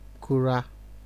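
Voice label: "kura", Nigerian Pidgin, male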